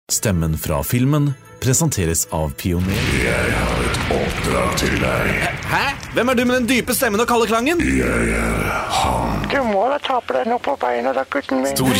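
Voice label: characters, male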